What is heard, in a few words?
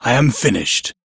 Crew Task Done, Male, en; Warframe; Railjack Crew; Arbiters Hexis